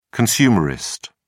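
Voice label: "consumerist", British English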